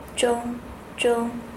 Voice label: zhong female